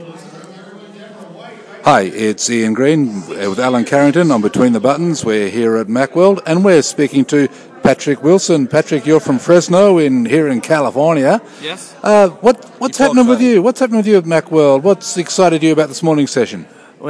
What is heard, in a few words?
vox pops; macworld; educate stream